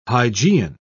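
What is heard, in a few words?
"hygeian", British English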